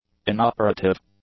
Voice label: "inoperative", English; adjectives